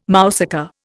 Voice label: "mousaka", Indian